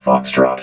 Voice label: "foxtrot", robot vox